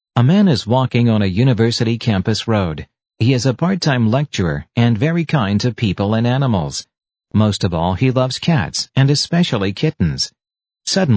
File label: American English, M